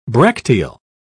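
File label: "bracteal", English, British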